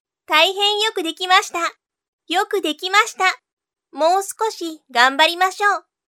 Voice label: girl, voice